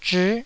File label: "zhii9", Taiwanese syllable